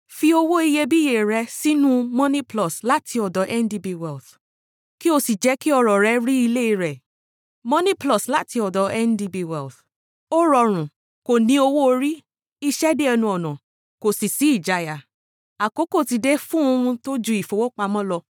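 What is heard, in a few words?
Yoruba, Nigeria, female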